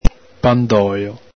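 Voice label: "pandolo", Venetian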